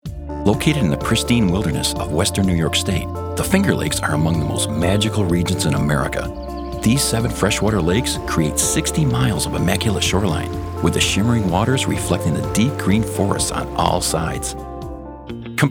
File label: Narration